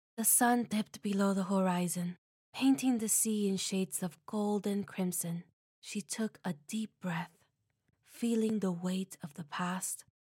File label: Narration